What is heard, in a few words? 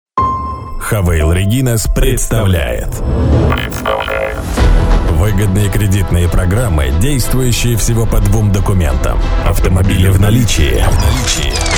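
Russian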